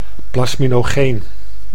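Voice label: "plasminogeen", Dutch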